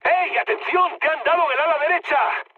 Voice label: right wing, mood high, speech; War Thunder

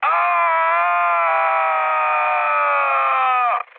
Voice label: damaged pilot, speech cze, mood high; War Thunder

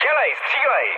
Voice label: attack position, speech cze, mood med

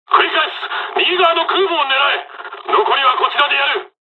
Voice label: dialog, dialogs japanese; us midway; War Thunder

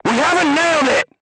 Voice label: voice message armor not pierce, vietnamese

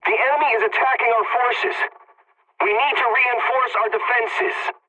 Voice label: pilot point under attack, english; War Thunder

